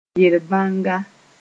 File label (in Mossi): "yer-bãnga", moore